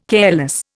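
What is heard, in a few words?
"careless", Indian English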